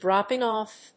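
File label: "dropping-off", English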